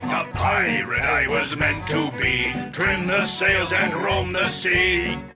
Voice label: pirate